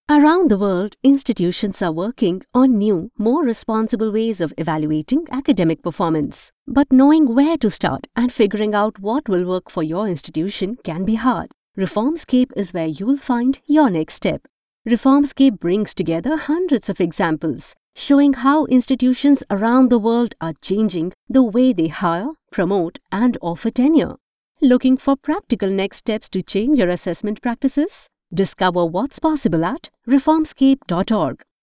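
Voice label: English Explainer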